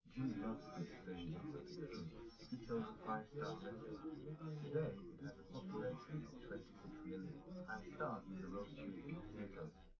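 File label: male, live recording; SiSEC11; underdetermined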